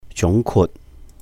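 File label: "júng kwut", Cantonese; sum up; 總括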